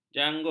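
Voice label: "janggut", Malay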